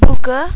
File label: open, malaysian